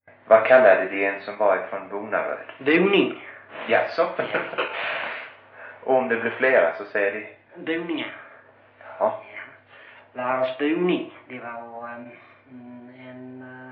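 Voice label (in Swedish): Brösarp Skåne, dialekter; Folkminnen; Svenska sagor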